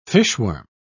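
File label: "fishworm", British English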